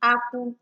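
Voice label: "akpu" Nigerian Pidgin, female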